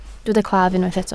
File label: "claf", Welsh